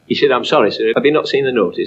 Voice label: Mystery Voice voice clip only